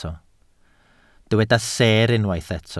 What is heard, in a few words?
"seer", Welsh